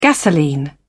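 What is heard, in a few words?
"gasoline", British English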